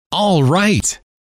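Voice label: "alright", male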